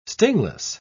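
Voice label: "stingless", English, British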